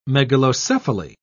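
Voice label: "megalocephaly", British English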